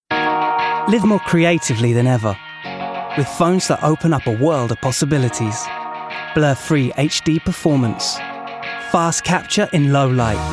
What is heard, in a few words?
Commercial Reel